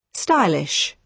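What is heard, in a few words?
"stylish", British English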